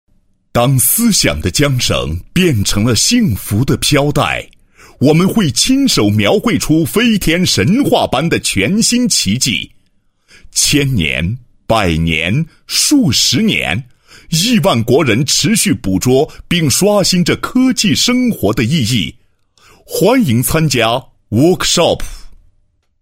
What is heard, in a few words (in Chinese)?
男 独白; 科技生活的意义